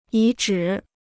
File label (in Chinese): "遗址", Chinese